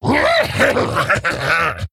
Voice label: Male Ghoul Play